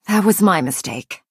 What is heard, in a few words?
female oops; Breton